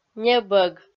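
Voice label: "небӧг", Komi-Zyrian, female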